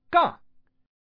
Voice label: gang, man; mahjongjinghai